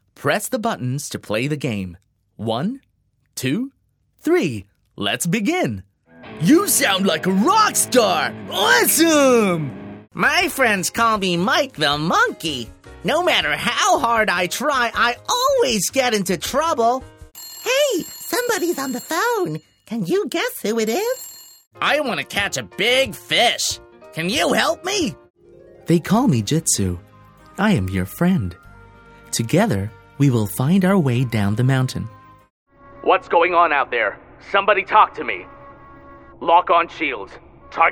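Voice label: American English, toys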